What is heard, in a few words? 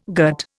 "gut", Indian